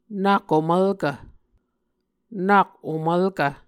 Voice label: "nak omvlkv", Muscogee

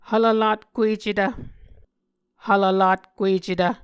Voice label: "hvlvlatkuecetv", Muscogee

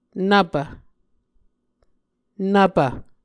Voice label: "nvpv", Muscogee

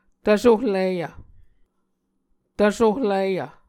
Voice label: "tvsohliyv", Muscogee

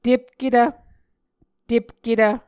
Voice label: "tepketv", muscogee

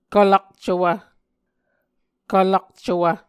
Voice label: "kvlakcowv", Muscogee

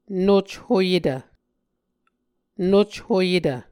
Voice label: "nochoyetv", Muscogee

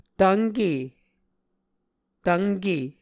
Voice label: "tvnke", muscogee

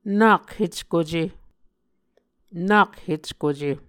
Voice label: "nak heckuce", Muscogee; Mvskoke Words with Voicing Consonants; A Dictionary of Creek/Muskogee; SIL International; Onvkv Ohhvyayices

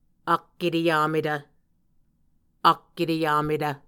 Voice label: "ak eteyametv", Muscogee

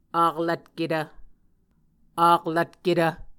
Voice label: "aklvtketv", Muscogee

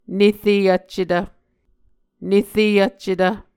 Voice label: "nere vccetv", Muscogee; Mvskoke Words with Repeated Consonants; Martin, Jack B., and Margaret McKane Mauldin. 2023. A Dictionary of Creek/Muskogee

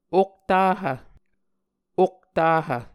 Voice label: "oktahv", Muscogee

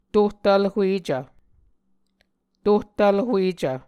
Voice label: "tohtvlhuecv", muscogee

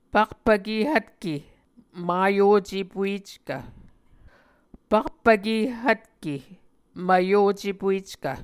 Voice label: "pakpvke hvtke mvyocepueckv", Muscogee; Mvskoke Words with Voicing Consonants; pakpvkē-hvtke ’mvyocepueckv c / j; A Dictionary of Creek/Muskogee; SIL International

